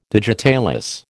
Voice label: "digitalis", American

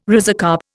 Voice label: "rhizocarp", Indian

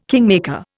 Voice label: "kingmaker", Indian

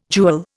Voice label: "jewel", Indian

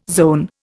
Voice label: "zone", Indian

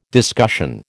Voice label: "discussion", American

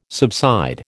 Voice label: "subside", American

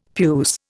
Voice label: "puce", Indian; Dictionary

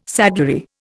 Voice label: "saddlery", Indian

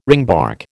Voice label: "ringbark", American

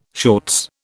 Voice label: "shorts", British

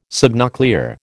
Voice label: "subnuclear", American

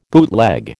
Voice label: "bootleg", American

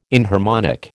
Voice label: "inharmonic", American

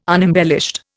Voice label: "unembellished", Indian

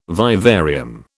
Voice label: "vivarium", British

